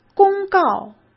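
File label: "公告" Mandarin Chinese; hsk; chinese-flashcards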